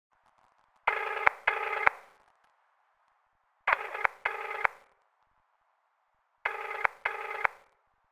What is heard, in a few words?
Leaked phone conversation